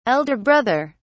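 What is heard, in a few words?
"elder brother", British English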